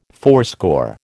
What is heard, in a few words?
"fourscore", American